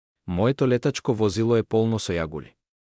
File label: hovercraft macedonian